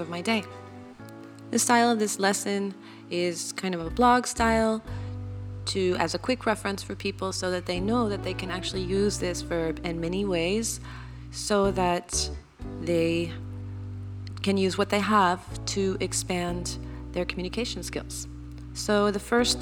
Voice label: Expressions with Tener voiceover and music